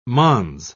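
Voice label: "mons", British English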